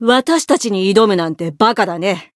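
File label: voice encount, Japanese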